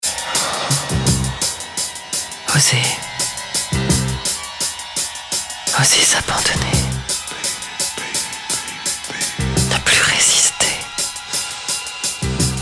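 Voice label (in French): voix sensuelle chuchotée; DIOR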